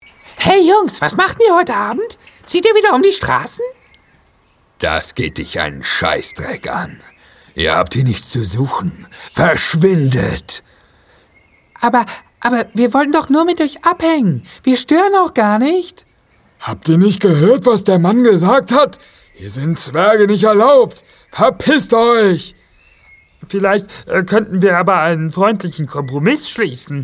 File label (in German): Trickstimmen